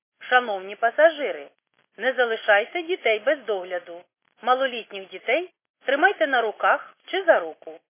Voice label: khs st female, subway station